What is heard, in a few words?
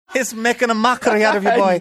he's making a mockery Irish